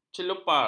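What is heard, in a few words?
"celopar", Malay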